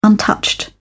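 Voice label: "untouched", British English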